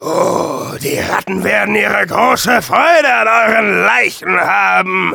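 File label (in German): taunts, German; Demoman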